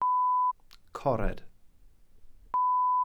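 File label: "cored", Welsh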